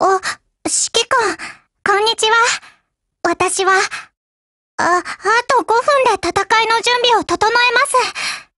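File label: HELLO Japanese, Voice; GF Alarm; ChauchatM1915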